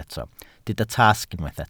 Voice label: "tasg", Welsh

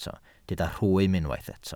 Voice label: "rhwym", Welsh

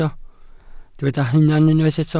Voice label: "hunan", Welsh

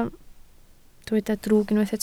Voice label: "drwg", Welsh

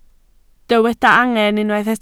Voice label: "angen", Welsh

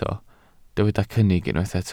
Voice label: "cynnig", welsh

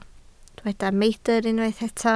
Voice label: "meidr", Welsh

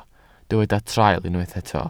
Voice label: "traul", Welsh

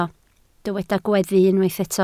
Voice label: "gweddu", Welsh

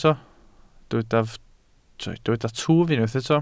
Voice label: "twf", Welsh

